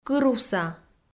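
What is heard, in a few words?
"кырувса", Komi, female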